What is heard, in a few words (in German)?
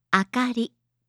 "akari", japanische maedchennamen